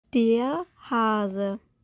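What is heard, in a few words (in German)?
"Der Hase", German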